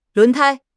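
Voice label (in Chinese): "轮胎", Chinese; HSK6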